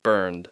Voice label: "burned", English

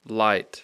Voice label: "light", English; Speech Pro

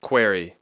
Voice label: "query", English

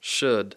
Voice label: "should", English

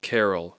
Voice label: "carol", English; Speech Pro

